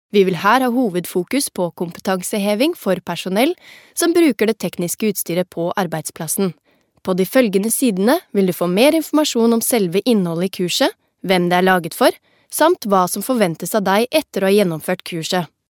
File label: norwegian female